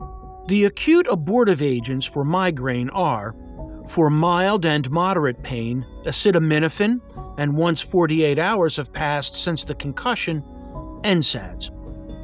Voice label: eLearning; PTH